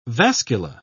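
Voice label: "vascula", British English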